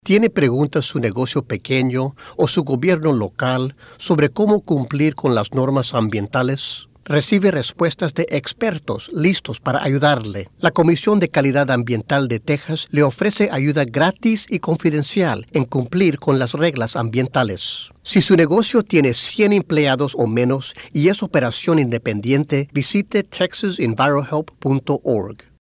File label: envirohelp spanish; 2011 psa; radio